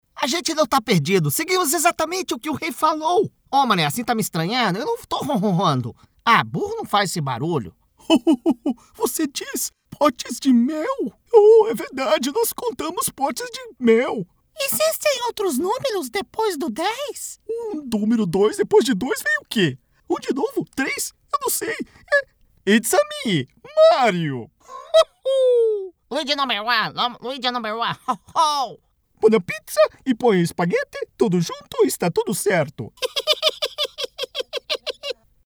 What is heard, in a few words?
Portuguese Brazilian animation